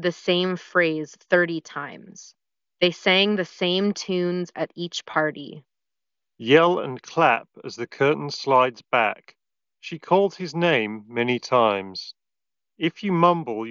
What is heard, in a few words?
Google Pixel Buds Pro Ideal microphone sample